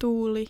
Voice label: "tuuli", finnish